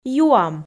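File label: "юам", Komi, female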